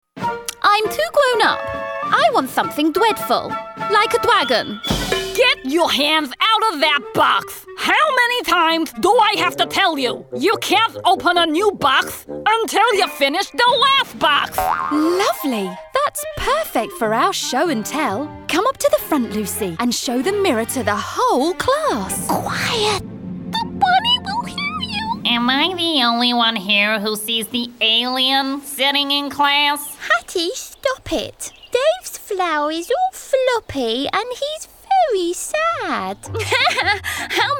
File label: Animation montage